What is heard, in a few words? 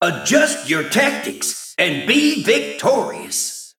Male Mech